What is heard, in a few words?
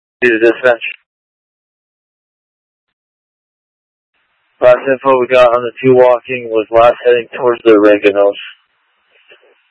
Mutual Aid-Intersystem Interop B, scanner